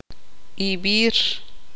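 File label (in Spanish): "ayer", Tz'utujil, female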